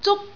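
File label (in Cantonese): "zuk1", Cantonese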